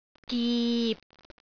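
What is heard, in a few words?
"gip6", Cantonese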